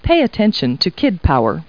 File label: people, kid